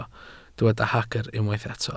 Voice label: "hagr", Welsh